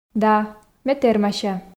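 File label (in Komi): да ме тэрмася, Komi, female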